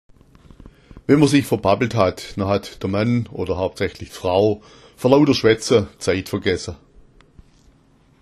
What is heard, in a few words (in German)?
verbabbla, schwaebisch